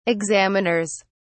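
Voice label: "examiners", British English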